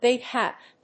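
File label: "big hat", English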